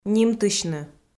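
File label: "нимтысьны", Komi, female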